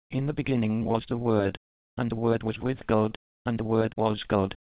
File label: male